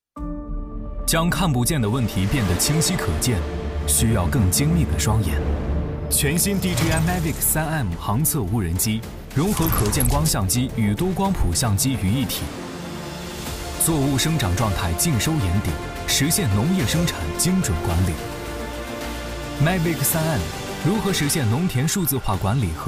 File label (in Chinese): 男 专题 坚定 航测无人机; 大疆; DJI; Mavic 3M